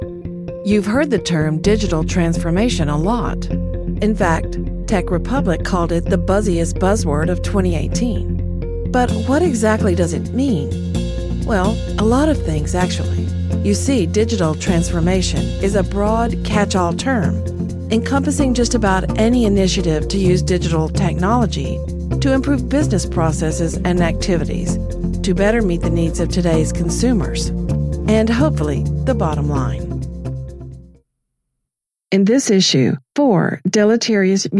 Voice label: eLearning

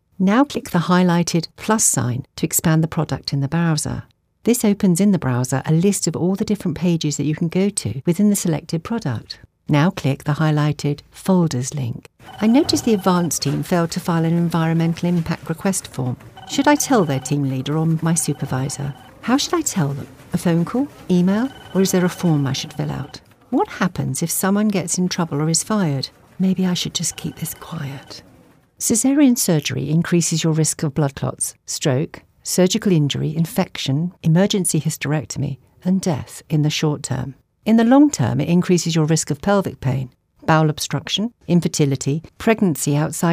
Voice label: E Learning

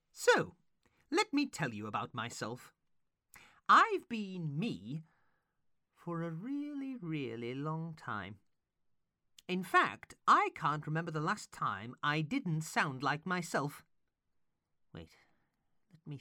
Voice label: Gentle Elf, UK

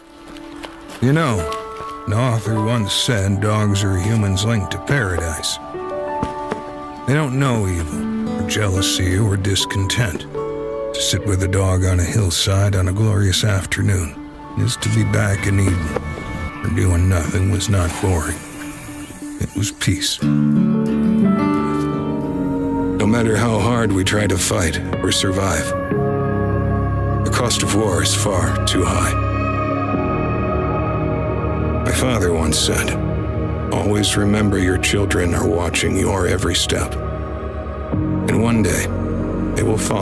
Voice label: Storyteller Narration

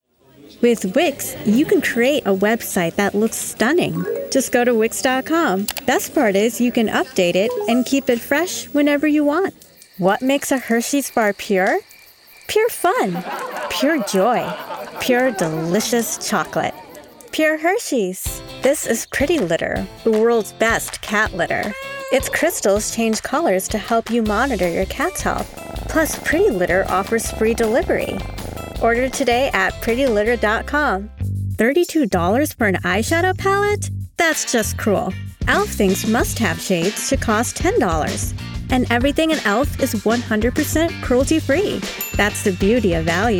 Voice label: Commercial VO

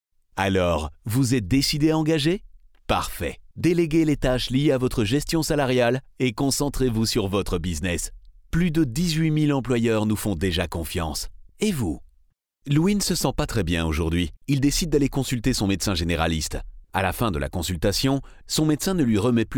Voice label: Corporate

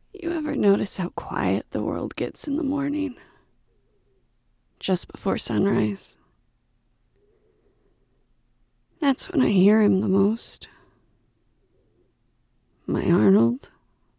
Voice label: Dramatic Mono

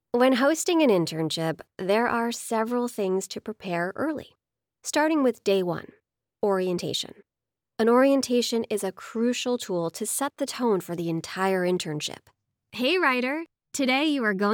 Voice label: Instructional eLearning

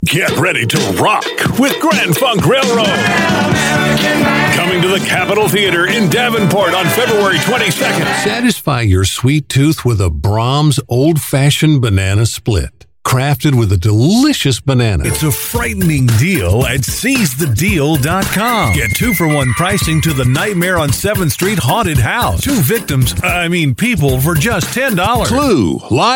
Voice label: Extended Commercial

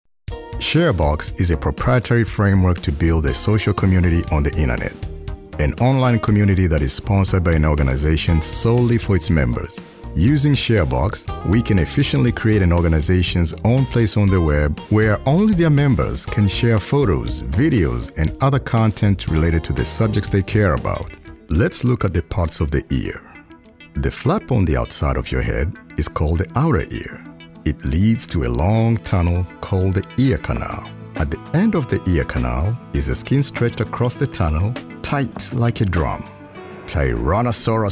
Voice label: Narration Mix

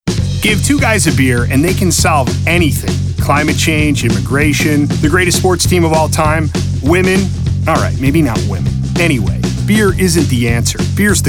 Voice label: vo commercial

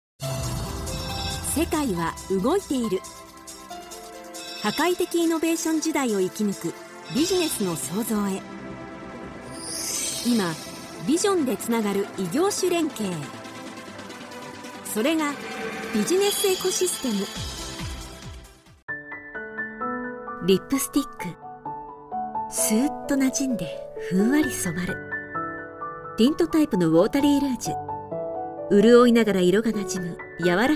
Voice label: age 18-35

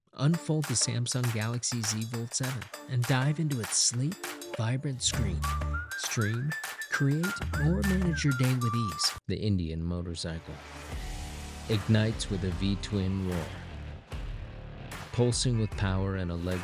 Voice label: Commercial

